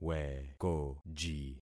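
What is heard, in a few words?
"wekoji", concatenated syllables; Affixing experiment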